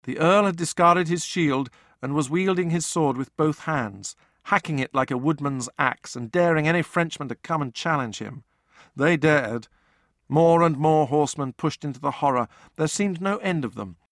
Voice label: Speech DRY; Speech In Church; RaySpace